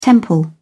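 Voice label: "temple", British English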